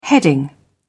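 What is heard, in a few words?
"heading", British English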